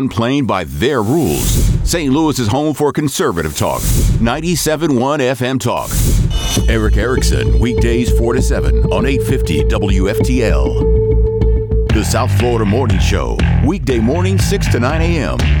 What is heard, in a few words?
NEWS